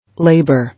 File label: "Labour", English